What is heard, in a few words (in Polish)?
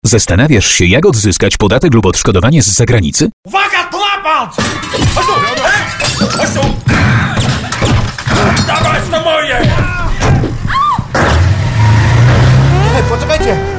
reklama radiowa